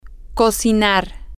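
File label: to cook, Spanish, female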